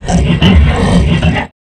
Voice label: Zombie